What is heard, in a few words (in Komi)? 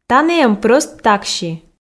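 тані эм прӧст такси, Komi, female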